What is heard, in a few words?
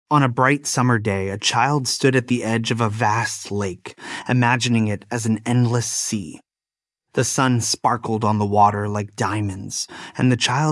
male us story; Swan Swam Over the Sea